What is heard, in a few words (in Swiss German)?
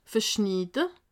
"verschnide", alsace